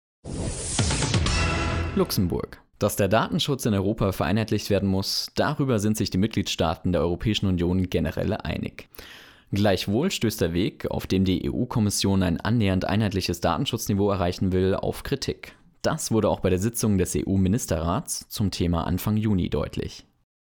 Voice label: news

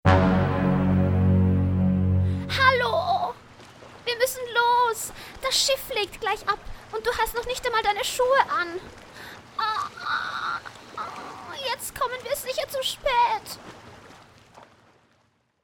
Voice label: Cartoon

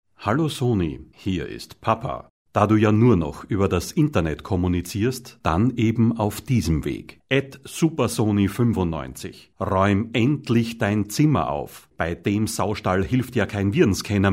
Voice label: Radio Jingle; COMPUTER

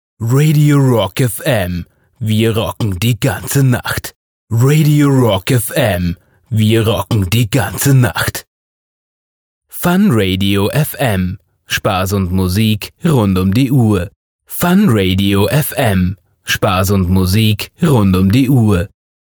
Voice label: Station Voice

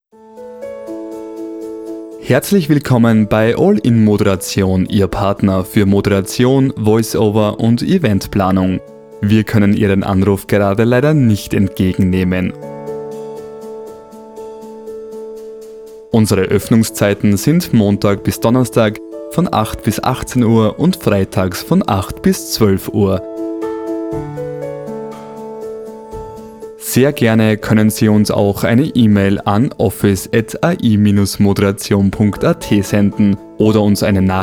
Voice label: Telephone

